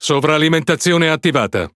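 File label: Italian speech Consumable Forsage Start; World Of Warships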